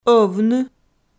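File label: "ӧвны", Komi, female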